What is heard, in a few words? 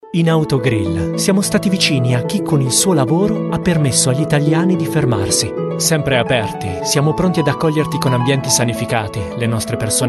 italian voiceover commercial